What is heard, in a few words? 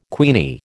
"queenie", American